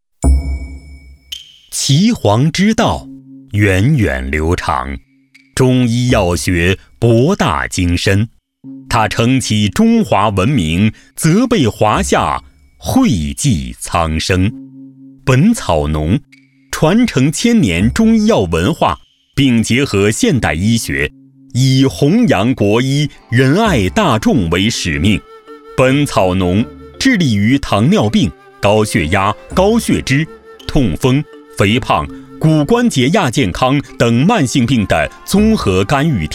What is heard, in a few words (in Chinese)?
男 专题介绍 中医诊疗; 本草农